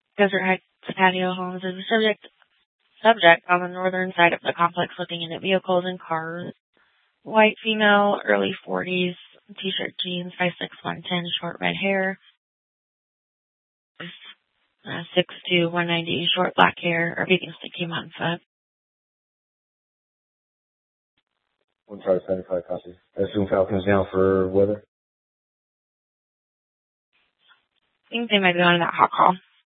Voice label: Superstition Dispatch, Mesa PD

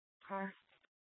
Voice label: Tempe PD, scanner; Rgnl Wireless Co-op (RWC)

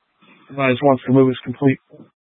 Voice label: Light Rail Emergency, scanner

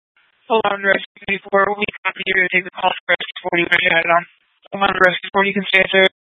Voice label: Phx PD Fire Dispatch; K9 - FDCOM 9 - West; Phx Fire and Public Works; RWC

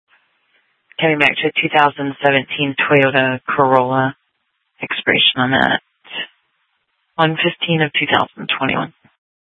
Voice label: Sheriff, scanner P25

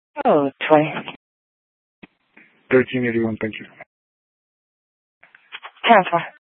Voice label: Sheriff, Maricopa County P25; Maricopa County (P25) - Thompson Peak; Shrf Lakes

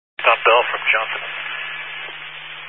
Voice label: Highway Patrol, Phoenix Metro West Area, scanner

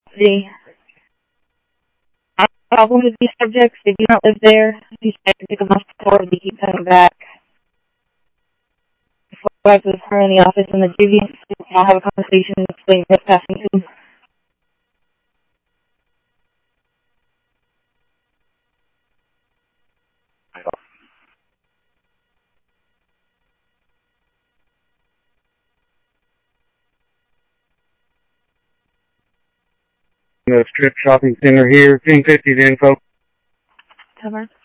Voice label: Police, scanner; H-Scottsdale; ScottsdalePolice

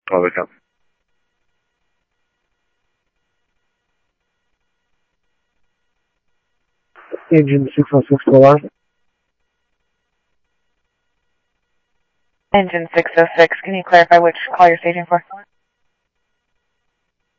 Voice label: Police, scanner audio

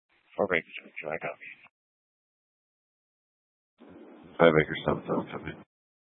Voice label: Dispatch, scanner

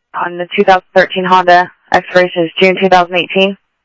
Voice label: scanner audio

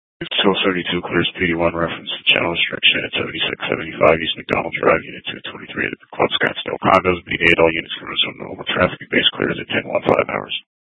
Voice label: PD Dispatch

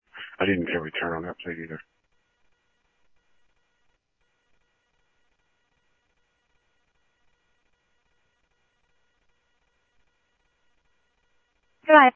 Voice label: police, scanner audio

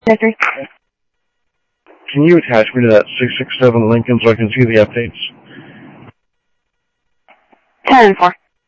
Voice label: Sheriff-WestCnty, scanner audio; MCSO - WEST; ThompsonPeakScot

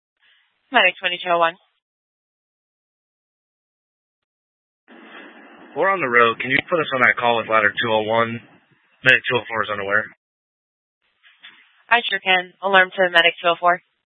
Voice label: Fire Departments Mesa Simulcast; TOPAZ

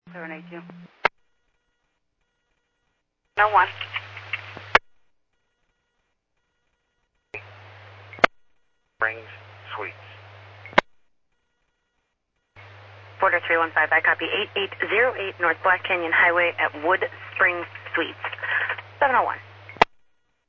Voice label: Medevac, scanner; PHIAIREVACHelRad

